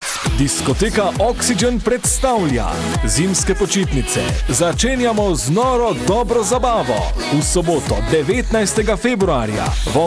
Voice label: Slovenian